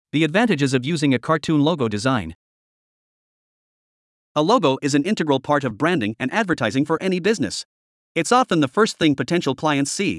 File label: Voiceover; Fusion Marketing; The Advantages of Using a Cartoon Logo Design